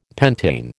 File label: "pentane", American